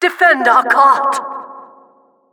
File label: Announcer game starting; Защищайте нашу вагонетку!